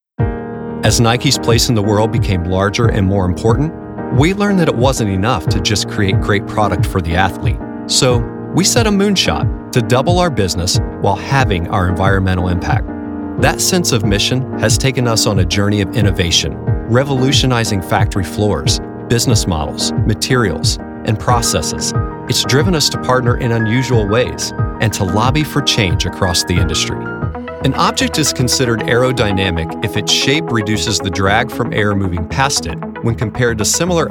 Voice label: CORPORATE

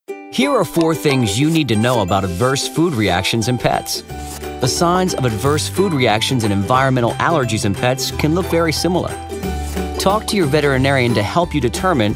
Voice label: Explainer

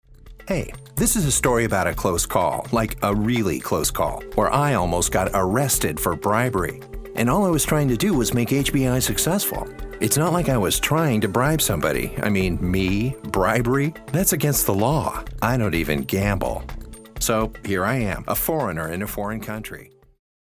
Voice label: B2B Conversational

